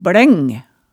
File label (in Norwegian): "blæng", hemsedals maalet tale